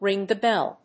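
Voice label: "ring the bell", English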